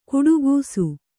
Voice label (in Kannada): "ಘಟಾಕಾಶ", Kannada